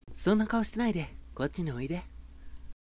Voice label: sample sweet hi, voice